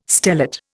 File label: "stellate", Indian